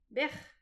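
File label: "bec-h", Breton; petit precis de prononciation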